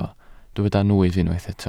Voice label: "nwyf", welsh

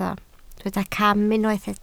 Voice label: "cam", Welsh

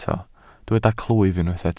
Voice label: "clwyf", Welsh

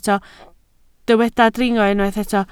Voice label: "dringo", Welsh

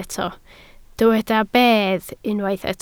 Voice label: "bedd", Welsh

